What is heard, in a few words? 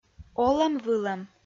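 "олӧм-вылӧм" Komi-Zyrian, female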